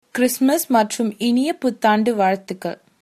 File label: christmas, tamil